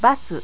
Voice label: japanese